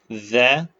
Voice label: short voyels arabic alphabet